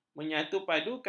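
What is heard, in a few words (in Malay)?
"menyatupadukan", Malay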